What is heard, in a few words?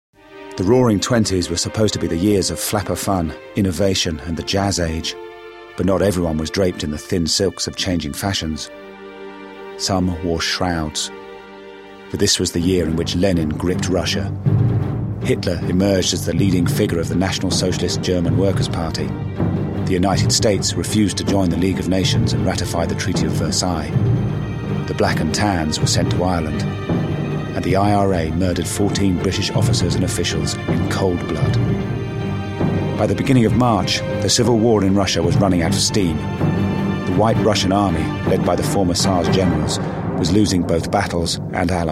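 documentary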